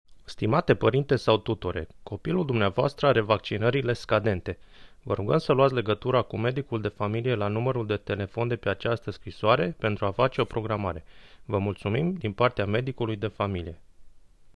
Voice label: Romanian Audio